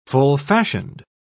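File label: "full-fashioned", British English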